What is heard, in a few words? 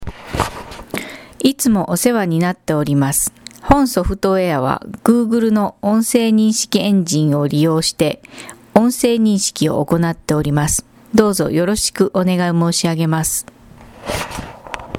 Sample Voice Woman